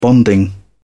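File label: "bonding", British English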